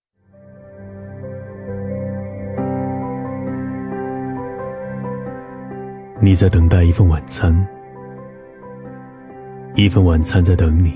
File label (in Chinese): 男 独白; 遇见自己